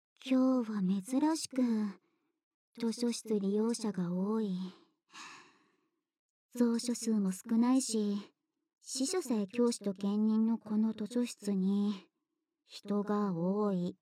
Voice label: Whisper; LOVEWhisperこうはい